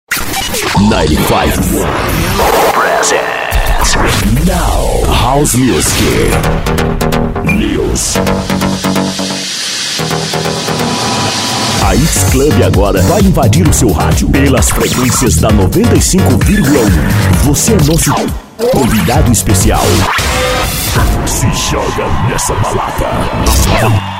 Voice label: session locutor

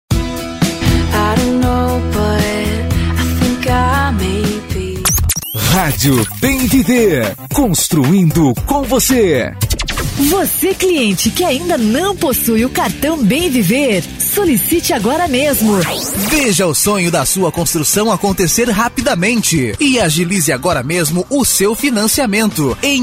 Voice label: demonstrativo radio loja de materiais de construcao

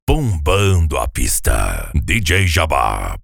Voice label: vinheta bombando a pista voice over

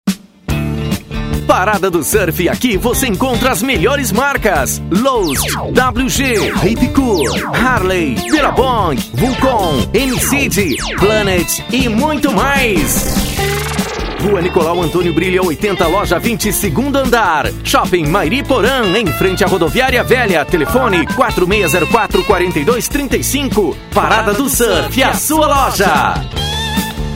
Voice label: comercial; parada do surf

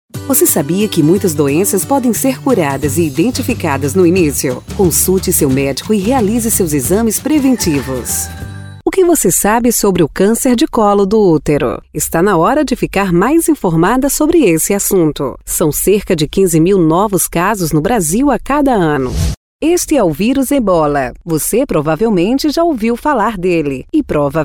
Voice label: locutora; vox midia

